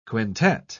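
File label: "quintette", English, uk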